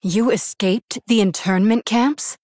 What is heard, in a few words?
Human Female; Hero; Jaina; Mission4 Exchange